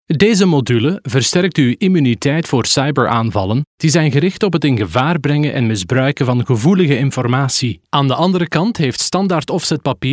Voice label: elearning

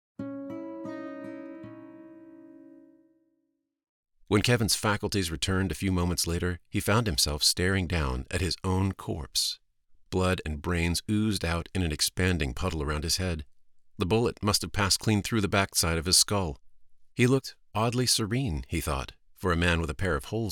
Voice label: Audiobook Narration

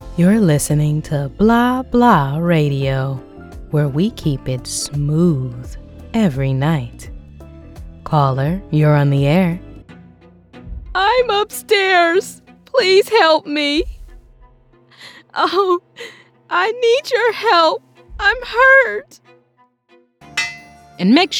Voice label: Character

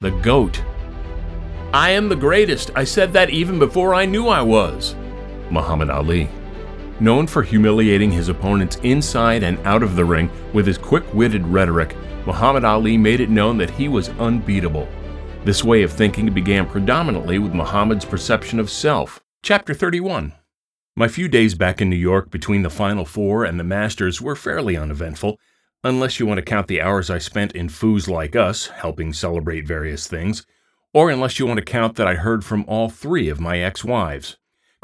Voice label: Audiobook

